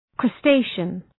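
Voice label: "crustacean", English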